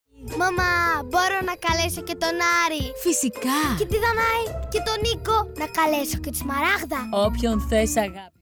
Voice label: girl voice sample